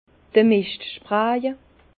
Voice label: "mischtspraje", Bas Rhin; vin; épandage de fumier

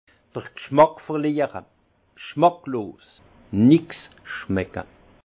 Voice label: "gschmack", alsace; vin; agueusie

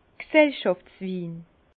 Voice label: "gsellschaftswin", Bas Rhin; vin; vin de collectivité

